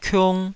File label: "kiung2", taiwanese syllable